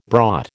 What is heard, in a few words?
"brought", American